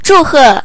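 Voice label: congratulation, Scottish Gaelic; gcompris-data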